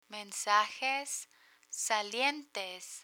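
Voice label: mensajes salientes, Spanish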